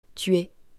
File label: "TU ES", French, female; to be verbe etre